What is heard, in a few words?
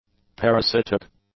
"parasitic", English; adjectives